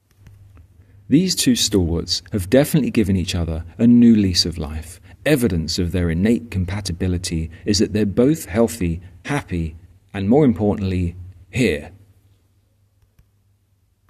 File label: voiceover